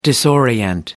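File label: "disorient", English, British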